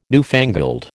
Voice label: "newfangled", American